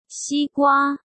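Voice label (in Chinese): "西瓜" Chinese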